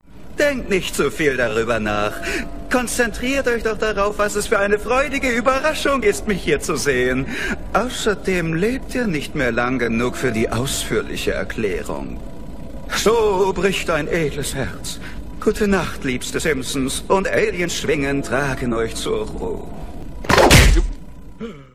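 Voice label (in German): hämisch; simpsons; LOFT VOICES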